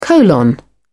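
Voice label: "colon", British English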